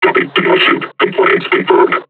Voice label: taunt, combine charger; Half Life Alyx

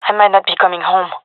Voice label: scared, gendarmerie fem; vo; agents

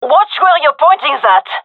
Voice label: taking fire friendly, fem

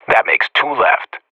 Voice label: radio bot kill count, vo professional; Counter Strike Model Pack; CS:GO

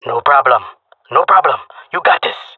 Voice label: scared, male; player vo; Counter Strike Model Pack; CS:GO

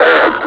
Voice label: "and", suppressor; Half Life Alyx; combine

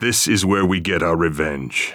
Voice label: pep talk; vo; Counter Strike Model Pack; CS:GO; phoenix coop

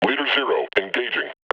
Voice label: combine announce; combine soldier

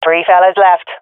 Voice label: enemies left three, swat fem; vo; Counter Strike Model Pack; CS:GO

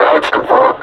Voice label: announce attack, combine suppressor

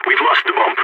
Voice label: spotted loose bomb, balkan; vo

